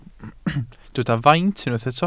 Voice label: "faint", Welsh

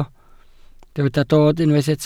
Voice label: "dod", Welsh

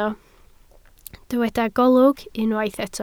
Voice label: "golwg", Welsh

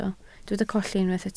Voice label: "colli", Welsh

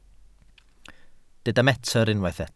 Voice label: "metr", Welsh

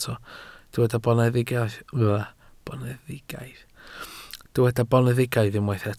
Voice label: "boneddigaidd", Welsh

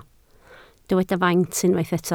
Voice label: "faint", Welsh

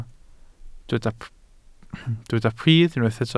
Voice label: "pridd" Welsh

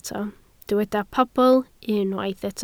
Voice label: "pobl", Welsh

